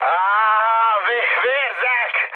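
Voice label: Hungarian damaged pilot mood high, speech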